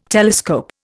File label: "telescope", Indian